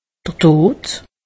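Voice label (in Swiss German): "todd", Alsatian; medecine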